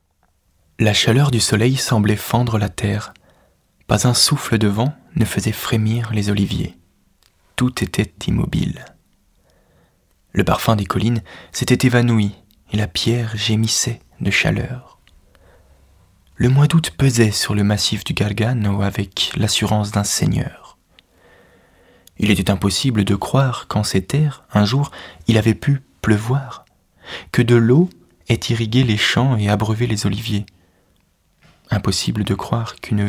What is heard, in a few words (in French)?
Voix rassurante Ton intime Débit distinct